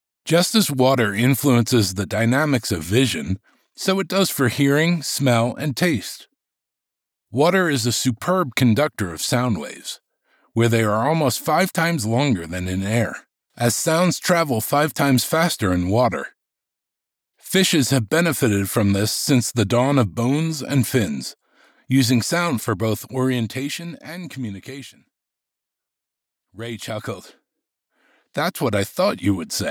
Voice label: Adult non fiction